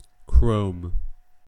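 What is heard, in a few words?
"chrome", English, US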